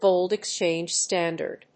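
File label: "gold-exchange standard", English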